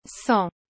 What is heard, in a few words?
"cent", French